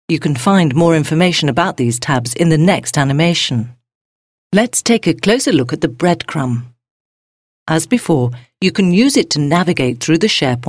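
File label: EN F corporate, English, female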